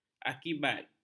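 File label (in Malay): "akibat", Malay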